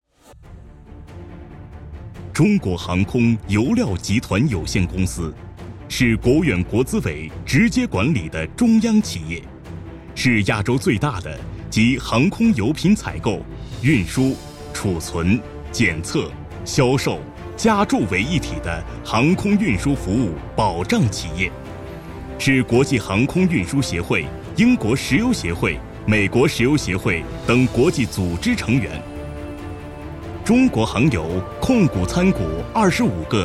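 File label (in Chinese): 专题宣传; 新专题世界强中航油宣传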